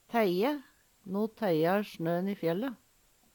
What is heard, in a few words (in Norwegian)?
teie, Numedalsmål; Verb; Vêr og føre